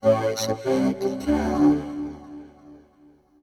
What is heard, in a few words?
VOICEFX TEKNO 140, Techno, Voice